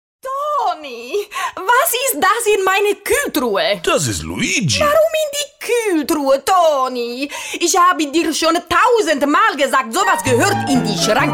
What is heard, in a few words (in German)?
Schauspiel Neutral